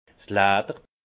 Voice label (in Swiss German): "ledder", alsace; vin; cuir